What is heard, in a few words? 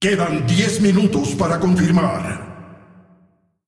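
announcer female tournaments lockin 3min, cs cz; es mx; clash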